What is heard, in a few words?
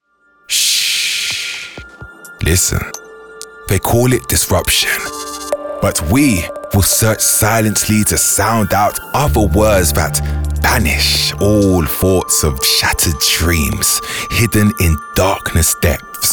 male english spoken word